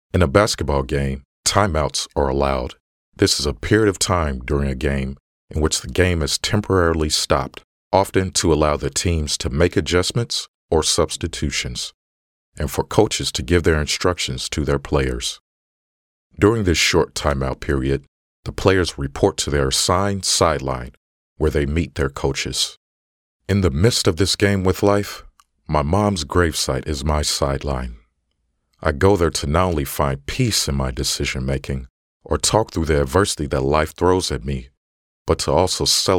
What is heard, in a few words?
Audiobook